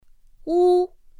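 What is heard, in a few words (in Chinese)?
"wu", Mandarin Chinese; pinyin2; pinyinji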